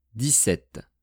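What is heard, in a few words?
"dix-sept", French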